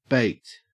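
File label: "baked", Australian English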